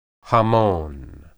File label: "hamon", Hebrew